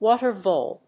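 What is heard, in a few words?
"water vole", English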